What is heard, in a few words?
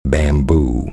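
"bamboo", spoken word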